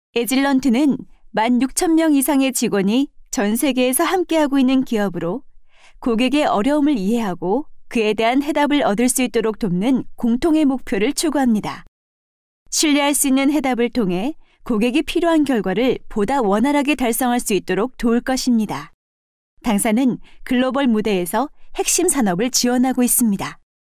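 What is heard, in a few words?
Korean, female, narration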